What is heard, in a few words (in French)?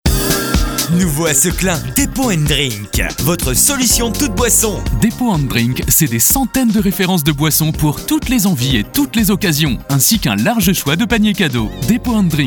radio pub spot